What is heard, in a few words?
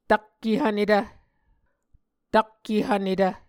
"tak ehvnetv", Muscogee